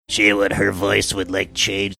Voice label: saying she would her voice would like change gruff